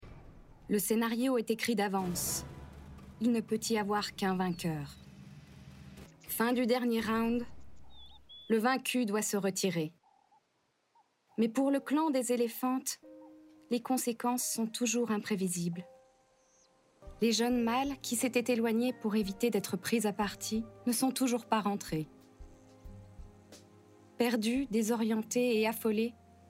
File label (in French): Docu animalier